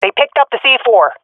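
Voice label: see bomb pickup, swat female; vo; Counter Strike Model Pack; CS:GO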